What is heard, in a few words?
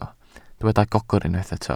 "gogr", Welsh